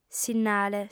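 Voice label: "sinnale", Sardinian, female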